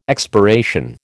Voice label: "expiration", American

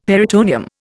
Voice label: "peritoneum", Indian; Dictionary

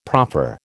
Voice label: "proffer", American

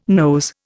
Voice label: "nose", Indian

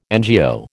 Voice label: "NGO", American

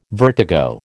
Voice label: "vertigo", American

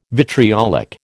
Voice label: "vitriolic", American

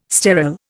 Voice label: "sterol", Indian

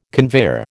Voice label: "conveyor", American

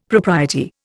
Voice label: "propriety", Indian; Dictionary

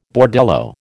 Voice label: "bordello", American

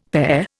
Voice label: "pare", Indian; Dictionary

